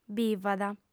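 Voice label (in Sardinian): "bìvat", female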